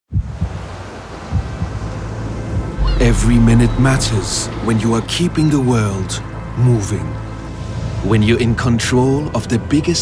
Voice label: English Continental European Accented; Moormaster NxG; Cavotec; Brand Film